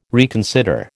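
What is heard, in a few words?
"reconsider", American English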